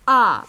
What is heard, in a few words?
"Er", two